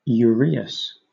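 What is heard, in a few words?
"uraeus", British English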